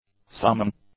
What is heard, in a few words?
"summing", English; adjectives